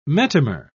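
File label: "metamer", English, British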